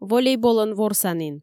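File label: "волейболӧн ворсанін", Komi, female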